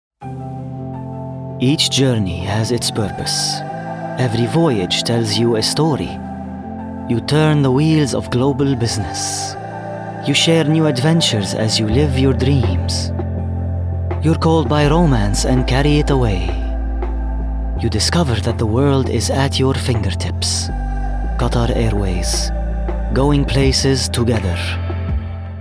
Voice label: male arabic and english usa english with arabic accent commercial